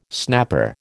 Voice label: "snapper", American

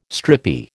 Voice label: "stripy", American

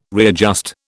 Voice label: "readjust", British

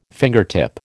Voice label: "fingertip", American

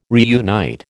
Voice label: "reunite", American

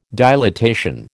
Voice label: "dilatation", American

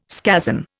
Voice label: "scazon", Indian

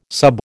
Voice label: "sub", American

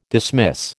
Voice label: "dismiss", American

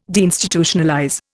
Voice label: "deinstitutionalize", Indian

